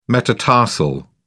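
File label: "metatarsal", English, British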